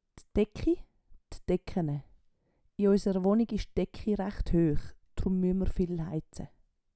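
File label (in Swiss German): "d Decki", Swiss German; Wortschatz Kapitel 8; Kapitel 8 Zusatzwortschatz 3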